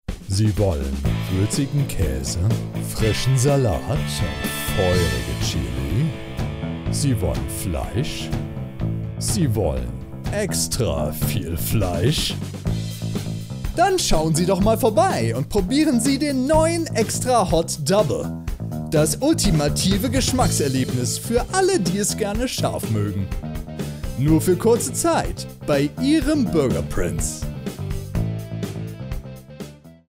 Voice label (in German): Werbung